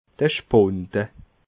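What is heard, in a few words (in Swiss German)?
"spunde", Alsatian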